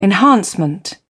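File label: "enhancement", British English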